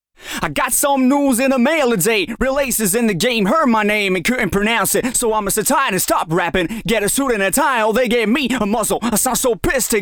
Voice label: Rap Vocal Urei 1178